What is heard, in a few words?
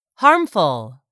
"harmful", English; 3000 words